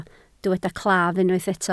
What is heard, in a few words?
"claf", Welsh